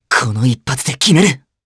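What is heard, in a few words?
Vox Skill Japanese; Theo; kings raid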